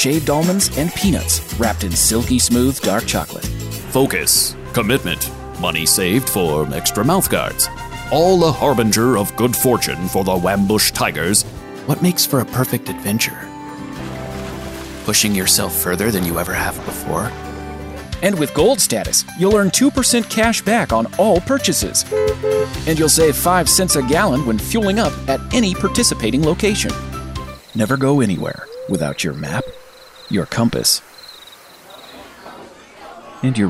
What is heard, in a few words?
commercial